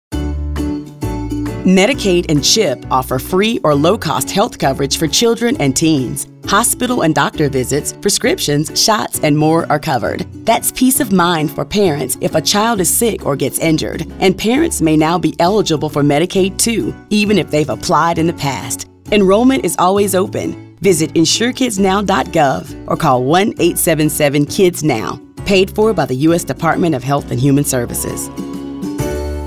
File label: radio PSA english; peace of mind